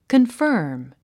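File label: "confirm", English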